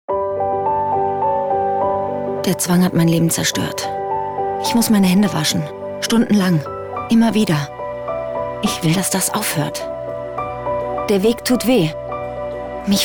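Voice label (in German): Kommentar Dynamisch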